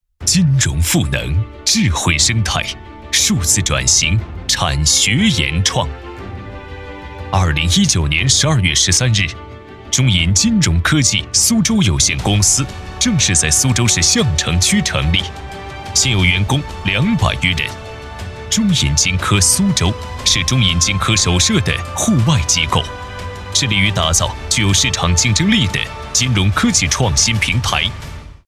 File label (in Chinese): 专题类; 中国银行中银金科